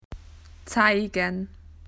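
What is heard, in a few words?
"to show", Australian English; PictureDictionary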